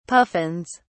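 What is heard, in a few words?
"puffins", British English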